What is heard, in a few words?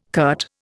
"curt", Indian